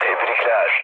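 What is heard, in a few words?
Turkish player killed enemy, mood low, speech; War Thunder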